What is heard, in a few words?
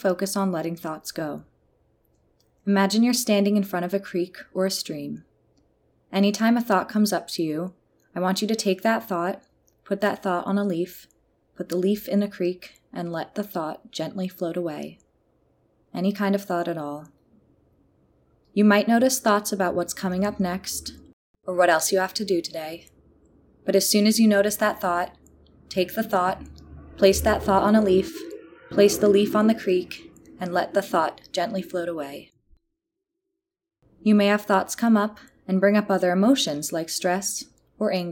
Floating Leaves Meditation